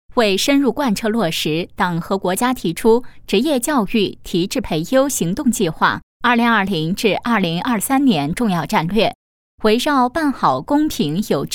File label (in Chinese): 女-新闻